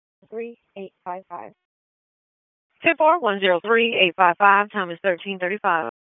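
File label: Police Southwest District Disp, radio; Raleigh; p25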